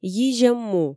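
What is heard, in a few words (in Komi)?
"йизьӧм му", Komi, female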